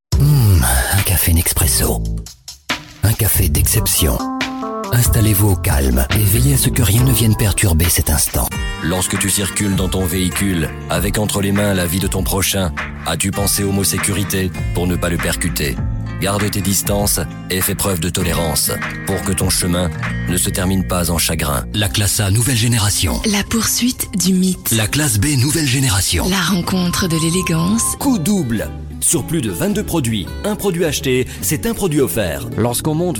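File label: male, French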